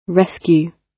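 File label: "rescue", British English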